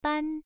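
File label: "ban" Mandarin, first tone, pronunciation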